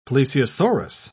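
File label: "plesiosaurus", British English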